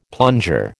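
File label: "plunger", American English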